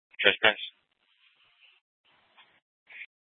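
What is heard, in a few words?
Scottsdale PD North Dispatch; Nth Dispatch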